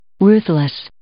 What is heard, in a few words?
"ruthless", English